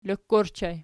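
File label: "lok" ergative plural, Archi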